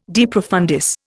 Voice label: "de profundis", Indian